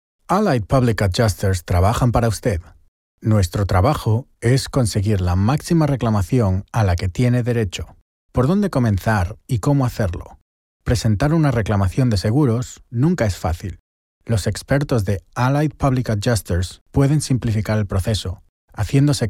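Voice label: Spanish Spain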